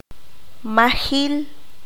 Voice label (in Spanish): "escaso", qanjobal